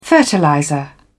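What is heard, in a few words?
"fertilizer", British English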